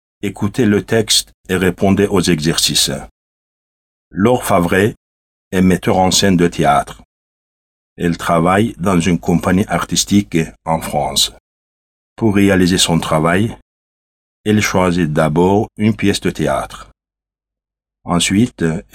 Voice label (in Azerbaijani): Model fransız